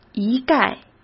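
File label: "一概", Mandarin Chinese; chinese-flashcards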